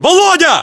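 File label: "volodya", battlechatter, Russian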